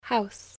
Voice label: "house", English spelling